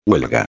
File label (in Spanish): "huelga", espanhol; palavra do dia